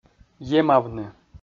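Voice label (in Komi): "емавны", Komi, male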